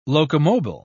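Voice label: "locomobile", English, British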